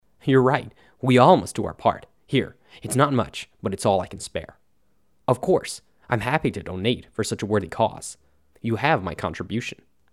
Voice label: wood elf voice auditions